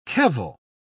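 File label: "kevel", British English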